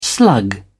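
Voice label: "slug", English, British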